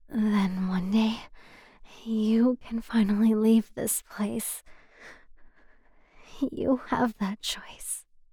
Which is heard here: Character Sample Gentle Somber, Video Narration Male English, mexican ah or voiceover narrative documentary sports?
Character Sample Gentle Somber